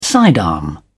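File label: "sidearm", British English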